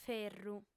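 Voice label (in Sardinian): "ferru", female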